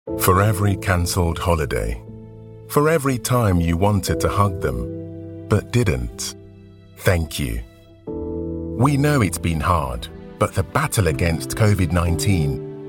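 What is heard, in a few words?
English UK, Commercial; GoLocalise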